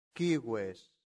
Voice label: "cives", latin; santillana